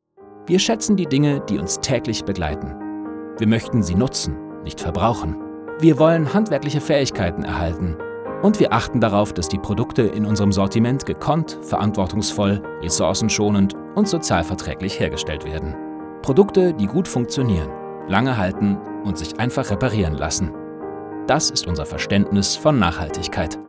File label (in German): demo imagefilm